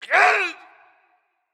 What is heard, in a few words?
pain